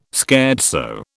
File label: "scherzo", British